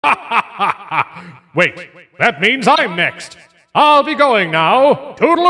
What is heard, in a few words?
announcer infection zombies win; open fortress